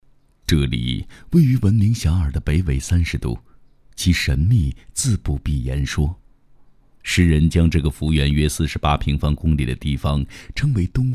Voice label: 纪录片舌尖风格; 土门

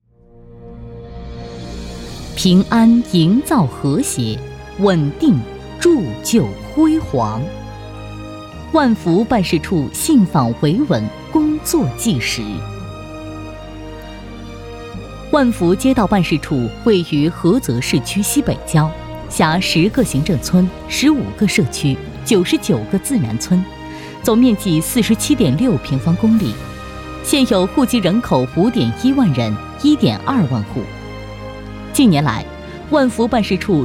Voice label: 大气宣传; 信访类

